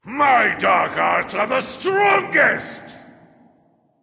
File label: vox emote; smite; ra; voicepack